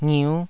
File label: "ngiu5", taiwanese syllables